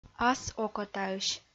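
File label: "ас окотаысь", Komi-Zyrian, female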